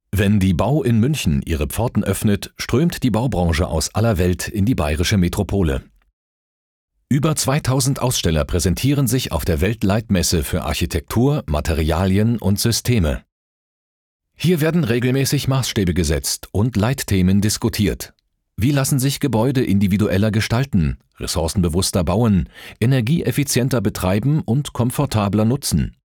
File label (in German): Imagefilm Messe